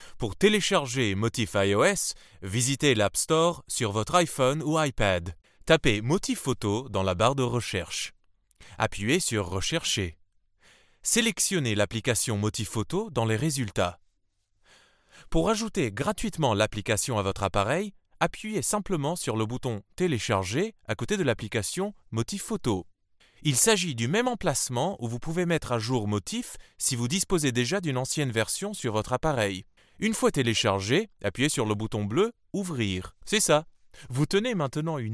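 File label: French, Corporate; GoLocalise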